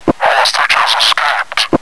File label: escaped, radio zombie male